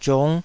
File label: "ziung5", Taiwanese